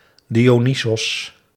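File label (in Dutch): "Dionysos", Dutch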